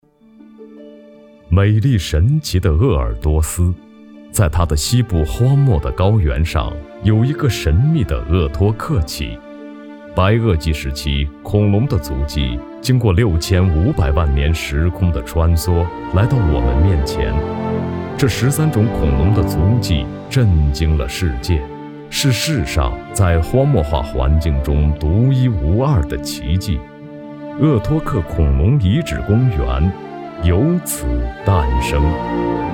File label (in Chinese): 男, 专题; 鄂托克恐龙